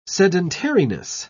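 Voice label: "sedentariness", British English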